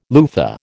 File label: "loofah", American